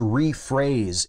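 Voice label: "rephrase", US English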